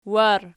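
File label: "war" nominative, Archi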